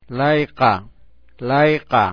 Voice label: "layqa", Aymara